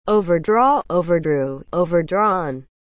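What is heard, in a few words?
"overdraw", English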